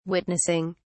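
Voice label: "witnessing", English, British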